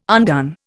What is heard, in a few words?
"undone", Indian